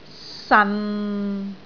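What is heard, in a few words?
"san6", Cantonese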